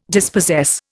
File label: "dispossess", Indian